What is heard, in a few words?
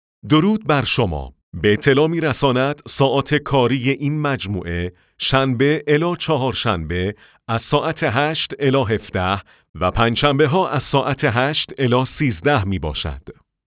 men